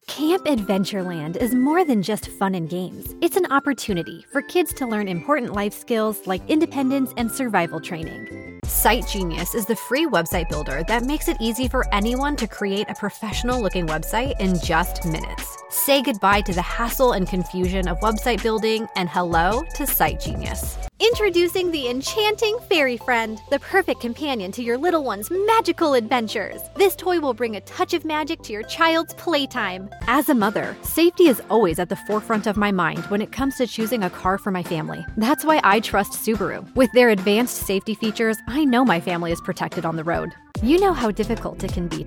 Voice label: Commercial Voice Over

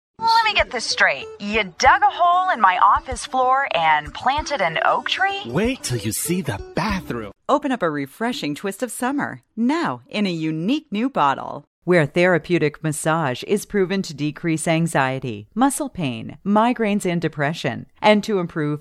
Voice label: Commercial Demo

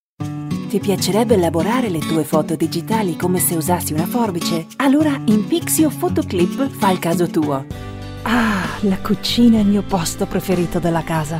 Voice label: Italian Commercials

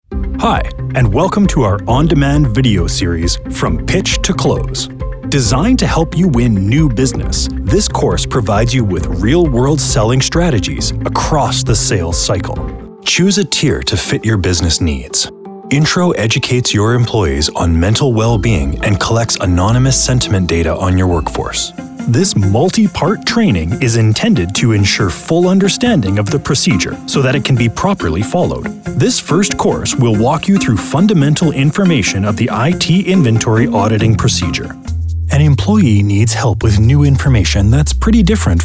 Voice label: ELearning

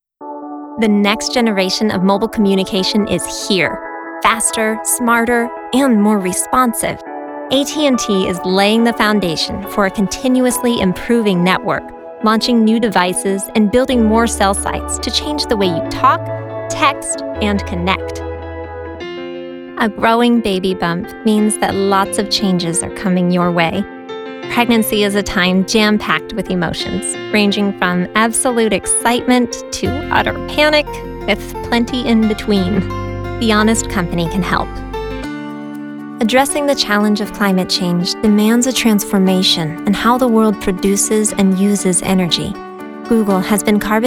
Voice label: corporate

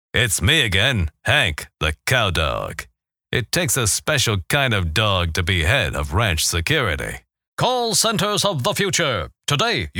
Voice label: character

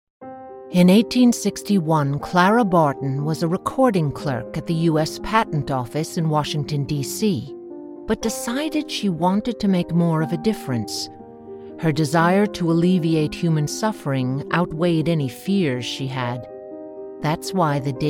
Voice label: Narration Historical

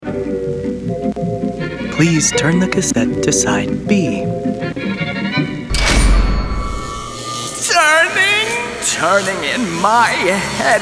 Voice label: Animation Gaming

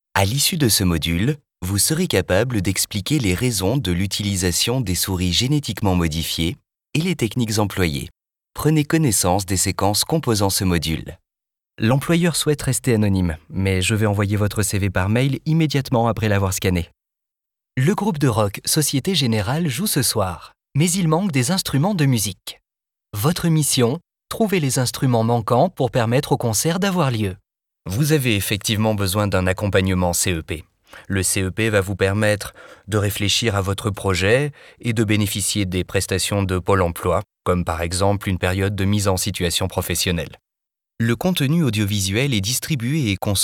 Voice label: French voice over E-learning

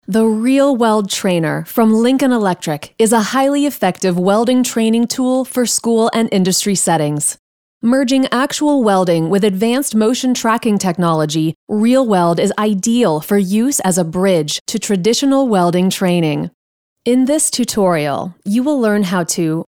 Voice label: ELearning

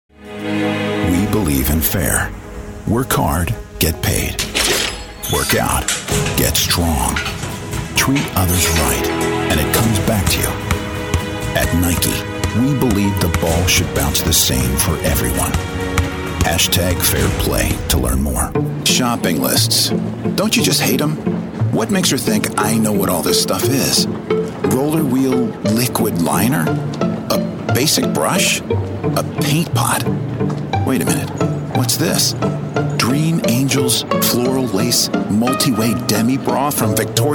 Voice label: Commercial Demo

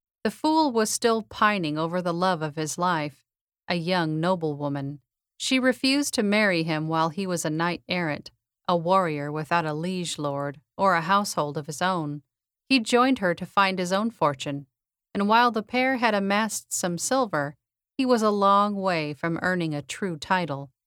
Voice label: audiobook

